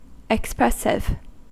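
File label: "expressive", American English; pronunciations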